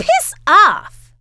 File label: piss off, female; kingpin; yolanda